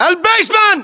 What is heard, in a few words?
location basement generic, battle chatter, english